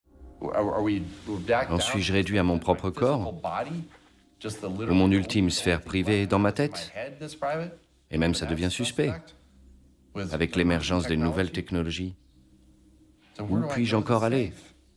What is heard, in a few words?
Voice Over